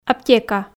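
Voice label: "аптека", Komi, female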